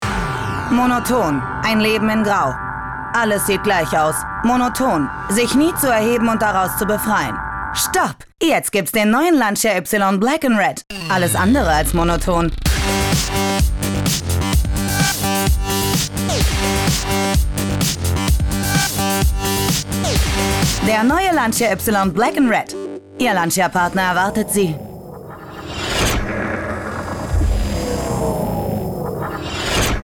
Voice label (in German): Sprecherin; WERBUNG; LANCIA: Ypsilon Black & Red